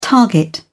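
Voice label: "target", British English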